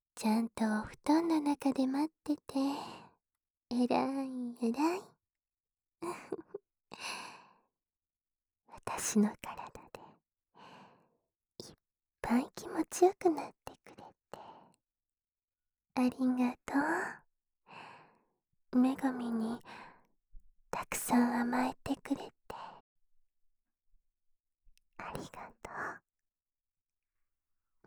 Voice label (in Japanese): 添い寝と囁き声とオノマトペ, ASMR; 女神と添い寝と囁き声とオノマトペで癒される夜